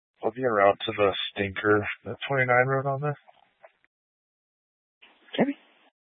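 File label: Police Dispatch, Scanner audio recording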